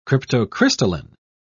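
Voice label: "cryptocrystalline", British English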